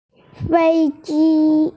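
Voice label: "feiji", Mandarin Chinese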